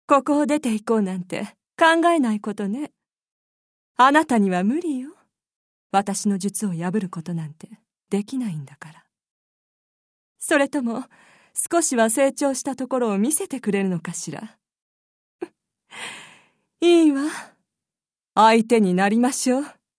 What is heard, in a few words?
bewitching magician